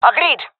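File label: agree, female; player vo; Counter Strike Model Pack; CS:GO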